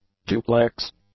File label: "duplex", English; adjectives